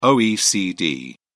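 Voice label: "oecd", English, British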